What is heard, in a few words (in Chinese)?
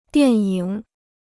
"电影", Chinese